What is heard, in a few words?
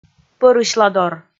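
"пӧрысьладор", Komi, female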